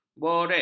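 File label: "borek", Malay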